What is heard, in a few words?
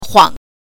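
"huang", Mandarin Chinese pinyin, neutral tone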